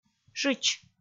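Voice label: "шыч", Komi, female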